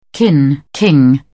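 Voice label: "king", French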